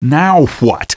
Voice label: now what, taunt; hunters